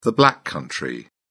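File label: "black country", British English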